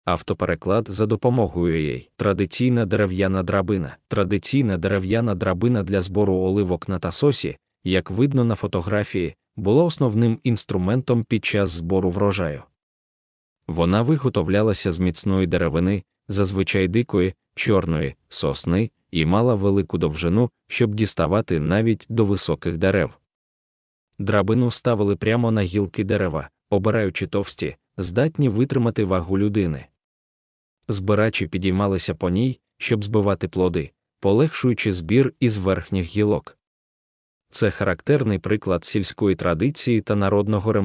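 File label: ukrainian, Audio guided tour; Σκάλα; Ця драбина є символом сільськогосподарських традицій і ремісничої майстерності острова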